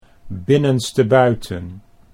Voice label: "binnenstebuiten", Dutch

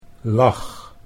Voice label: "lag", Dutch

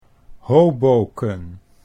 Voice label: "Hoboken", Dutch

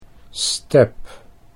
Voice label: "step", Dutch

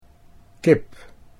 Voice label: "kip", Dutch

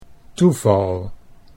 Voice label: "toeval", Dutch

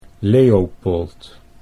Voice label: "Leopold", Dutch

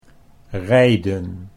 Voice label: "rijden", Dutch

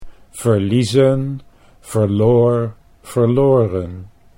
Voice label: verliezen verloor verloren, Dutch

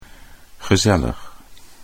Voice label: "gezellig", Dutch

